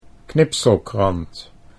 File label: "knipselkrant", Dutch